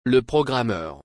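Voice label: "le programmeur", French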